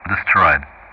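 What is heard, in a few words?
"destroyed", English; Ka-50; DCS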